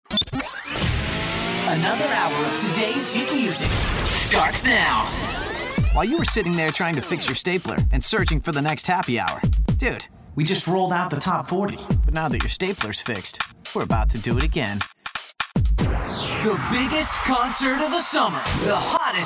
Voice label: Imaging